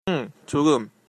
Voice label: yes a little, Korean; 응, 조금; (eung, jogeum) - inf